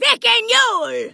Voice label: Vrykul Female Magic User Farewell